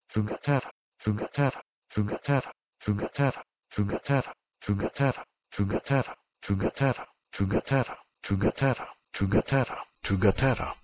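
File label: Proto-Indo-European dhugh2ter to Ancient Greek thugatera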